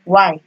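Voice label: "why", Nigerian Pidgin, female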